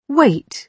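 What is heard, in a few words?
"wait", British English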